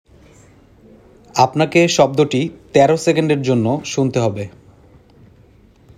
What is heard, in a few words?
male sound given